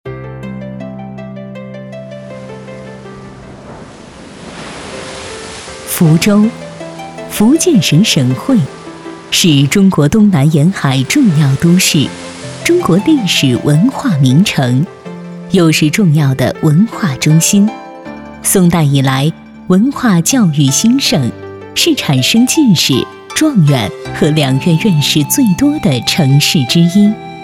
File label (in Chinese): 女76 专题; 福州